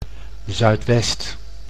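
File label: "zuidwest", Dutch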